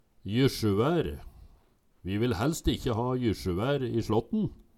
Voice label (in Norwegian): jysjuvær, Numedalsmål